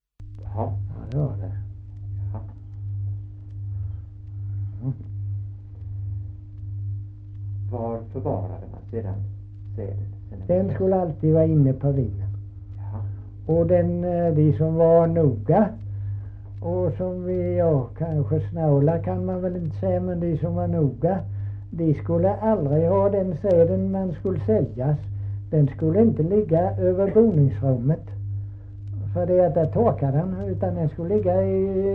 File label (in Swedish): Kristianopel, dialekter; Folkminnen